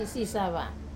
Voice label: "sava" cheap, Wamesa